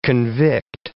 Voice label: "convict", English